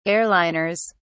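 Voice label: "airliners", British English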